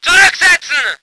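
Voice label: voice german vehicle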